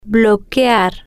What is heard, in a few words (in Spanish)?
"bloquear", Spanish